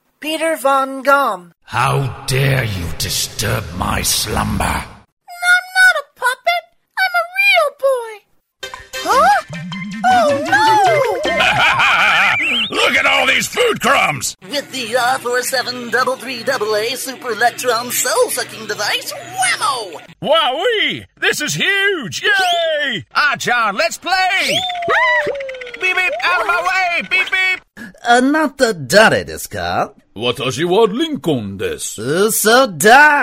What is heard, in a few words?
character; USA